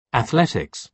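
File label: "athletics", British English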